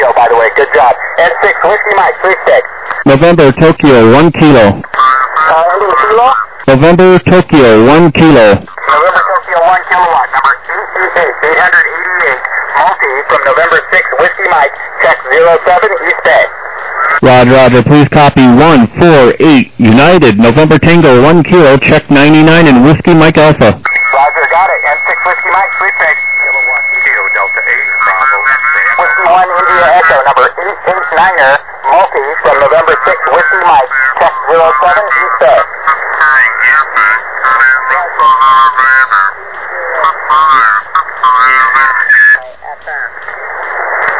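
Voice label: upper sideband 14MHz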